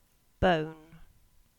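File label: "Bone", English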